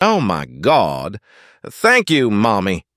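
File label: thank you mommy, male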